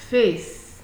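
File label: "face", Nigerian Pidgin, female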